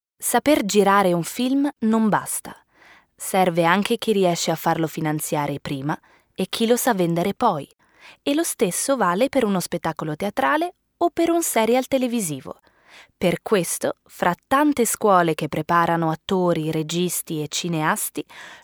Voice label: Doc, Italian; Cineasti In Erba